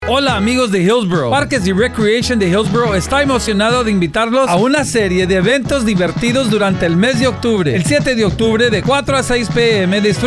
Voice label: Radio Ad; hillsboro parks recreation